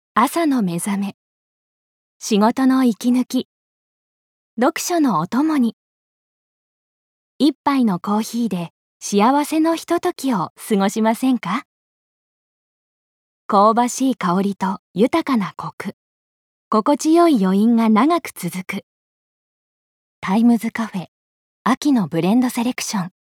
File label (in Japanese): コーヒーCM